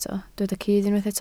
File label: "cudd", Welsh